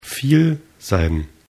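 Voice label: "viel", German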